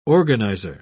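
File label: "organiser", British English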